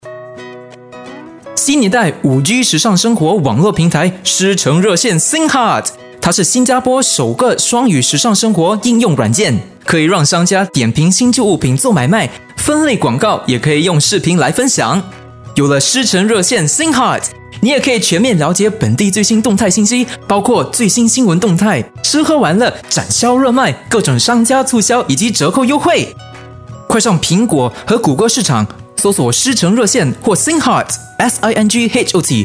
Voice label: MANDARIN CASUAL

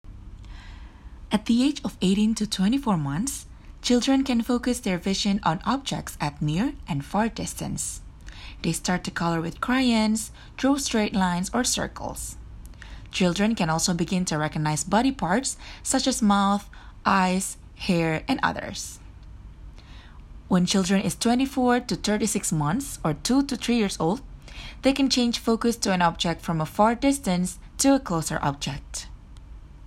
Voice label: English educational

